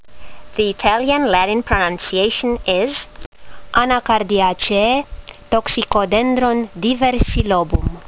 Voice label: latin; Toxicodendron diversilobum